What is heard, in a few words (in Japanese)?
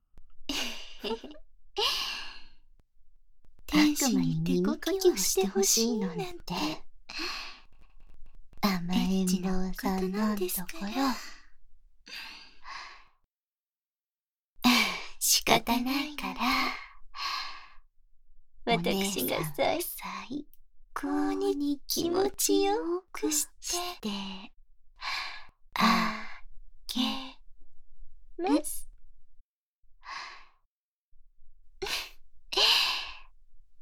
耳かき手コキ（バイノーラルマイク 効果音あり）